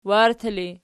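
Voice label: "warti" ergative, Archi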